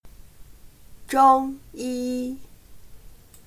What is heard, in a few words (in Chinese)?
"中医", chino